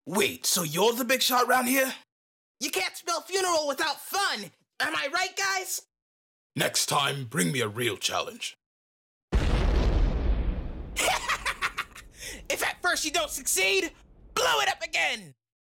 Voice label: Animation Reel